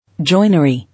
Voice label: "Joinery", English

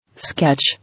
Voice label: "Sketch", English

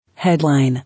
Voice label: "Headline", English

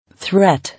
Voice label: "Threat", English

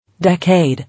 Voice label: "Decade", English

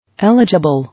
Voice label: "Eligible", English

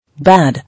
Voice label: "Bad", English

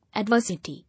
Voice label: "Adversity", English

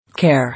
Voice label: "Care", English

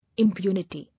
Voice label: "Impunity", English

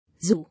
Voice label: "Zoo", English

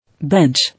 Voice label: "Bench", English

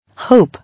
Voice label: "Hope", English

